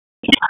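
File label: Dispatch, p25; WSO DISP 3; wake p25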